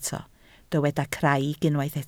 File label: "craig", Welsh